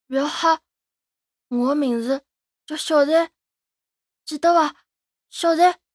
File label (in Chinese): 男孩 对话